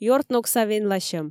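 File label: "ёртногса венласьӧм", Komi, female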